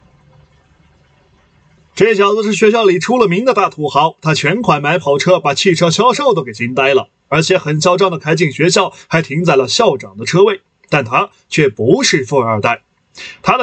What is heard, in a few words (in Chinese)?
解说配音